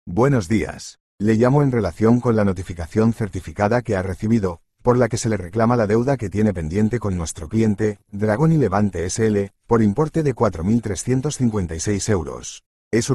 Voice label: audio call